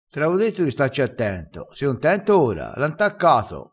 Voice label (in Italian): "intaccato", crespinese